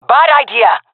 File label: disagree, fem; player vo; Counter Strike Model Pack; CS:GO